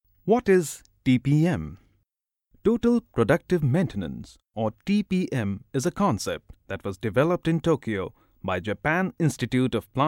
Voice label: narration

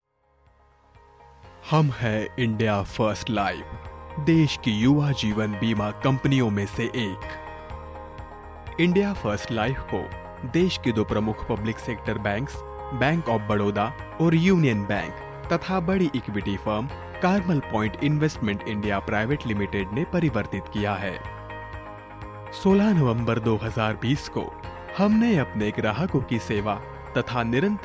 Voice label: CORPORATE HINDI

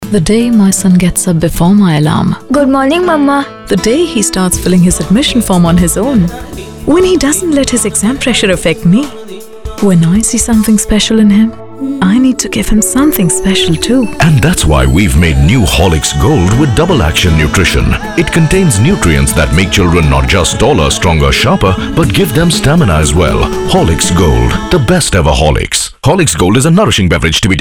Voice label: TVC, voice artistes; HORLICKS

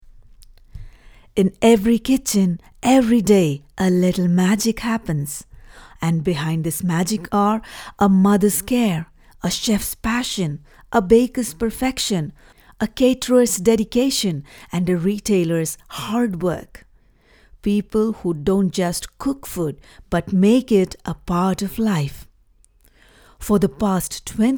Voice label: English, Female Voice Over; AWL Master Club

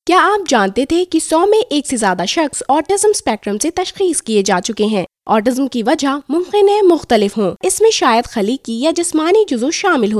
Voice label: urdu

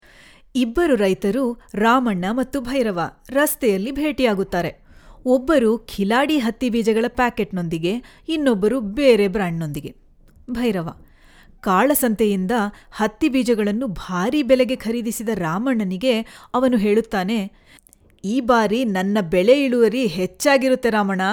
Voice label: Kannada; Khiladi

